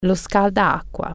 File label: water heater, italian